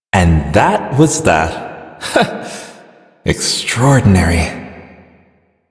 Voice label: Male en, ambient dialog; Warframe; Duviri

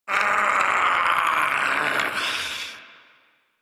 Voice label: Death Male en; Railjack Crew; Arbiters Hexis

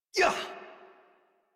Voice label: Adult Operator Jump, en; Warframe; New War

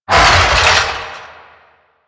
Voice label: Necramech Melee Attack, Barks; Warframe

